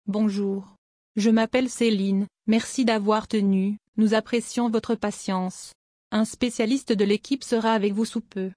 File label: French